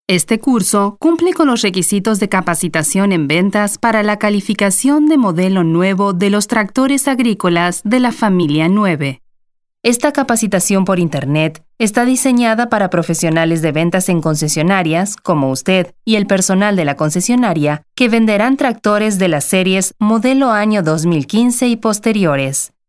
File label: es LA CORPORATE